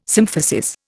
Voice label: "symphysis", Indian